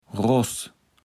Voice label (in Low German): "Ross", Platt